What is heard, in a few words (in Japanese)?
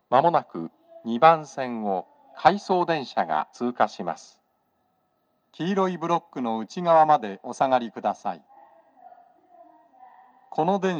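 接近放送, 男声, 放送は「回送電車の通過」です。; TOA円型での収録です。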